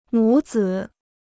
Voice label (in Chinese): "母子", Chinese